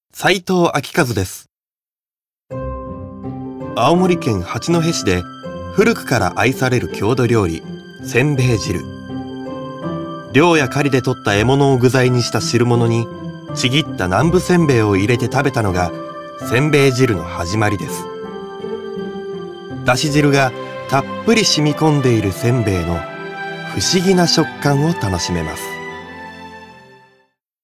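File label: narration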